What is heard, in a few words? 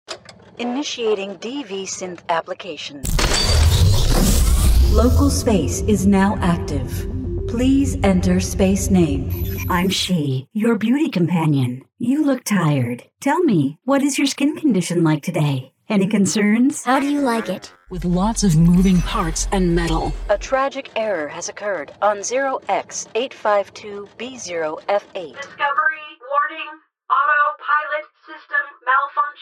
Artificial Intelligence NonBinary English